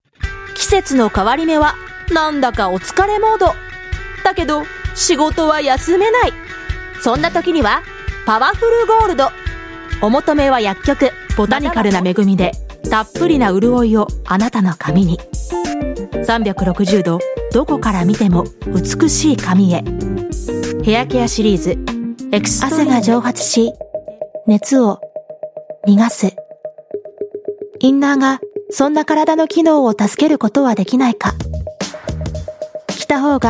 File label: Japanese Commercials, japanese female voices